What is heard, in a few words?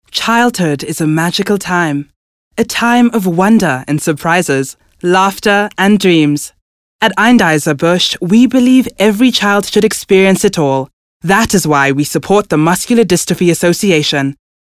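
English Corporate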